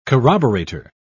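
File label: "corroborator", British English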